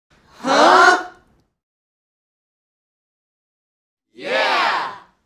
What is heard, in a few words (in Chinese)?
拟声Huh、Yea(男声); renlei